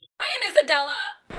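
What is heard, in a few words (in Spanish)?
Voice Acting, Spanish, female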